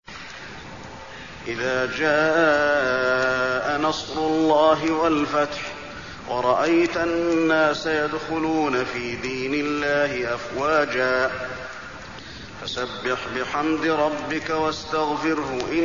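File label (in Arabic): النصر, تلاوة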